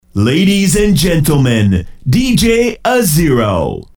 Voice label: Ladies Gentleman DJ Reverb